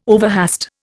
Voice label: "overhaste", Indian

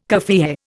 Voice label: "kaffiyeh", Indian

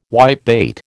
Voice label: "whitebait", American

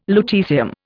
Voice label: "lutecium", Indian

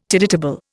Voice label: "tidetable", Indian

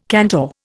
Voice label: "cantor", Indian

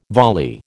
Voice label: "volley", American

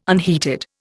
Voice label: "unheated", Indian

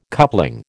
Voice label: "coupling", American

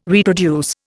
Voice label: "reproduce", Indian

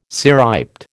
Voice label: "cirriped", American

